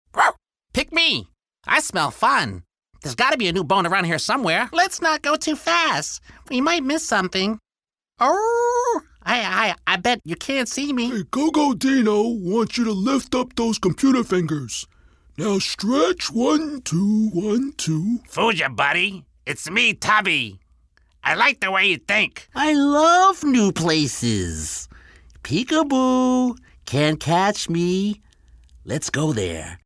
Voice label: Cartoon Characters